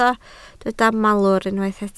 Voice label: "malwr", Welsh